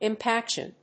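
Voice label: "impaction", English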